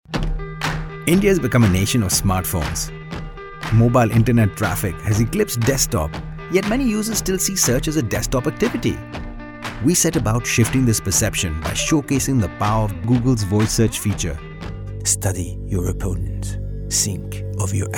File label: Accents